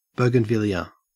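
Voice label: "bougainvillea", Australian English; pronunciations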